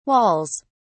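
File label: "walls", English, British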